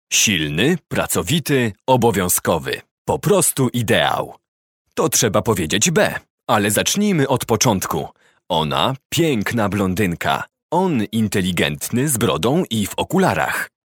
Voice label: pl product presentation

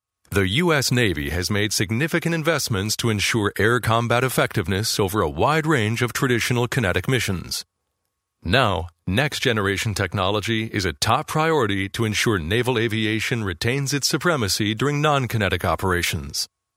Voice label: us elearning

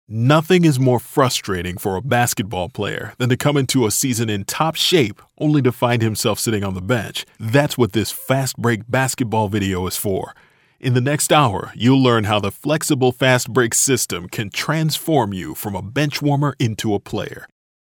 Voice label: us elearning

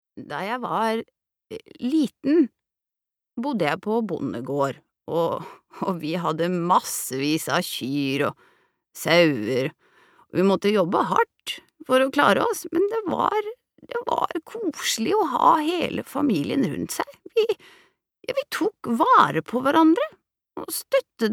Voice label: no dub old woman; norsk; kvinne

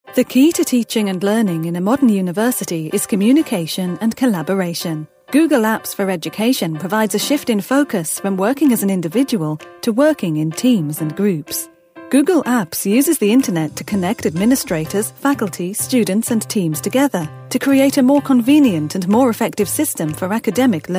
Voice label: uk info

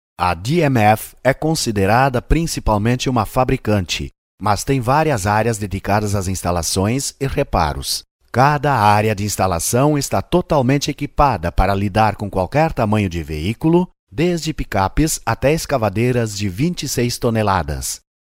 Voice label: br portuguese corporate

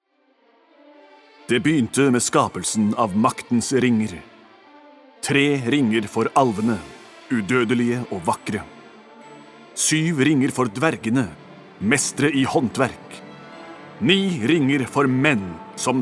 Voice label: no movie trailer

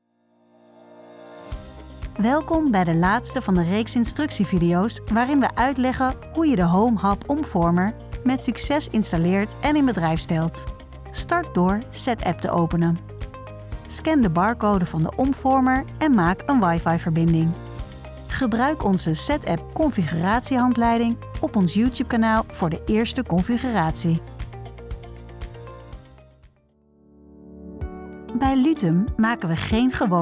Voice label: nl explainer

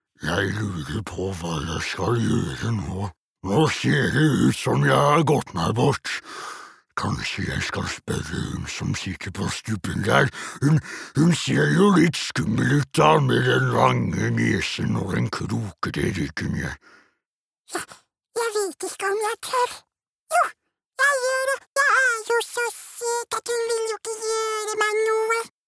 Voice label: no dub character bad guy

